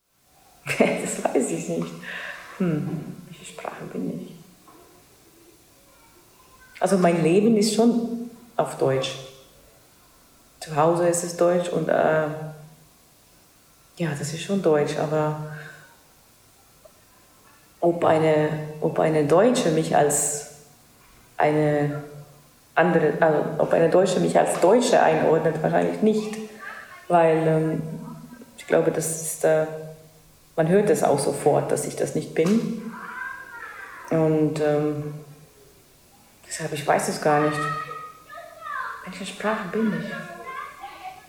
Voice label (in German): estnisch